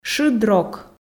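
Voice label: "шыд-рок", Komi, female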